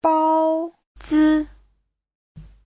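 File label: "bāozi", Mandarin Chinese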